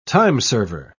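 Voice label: "timeserver", British English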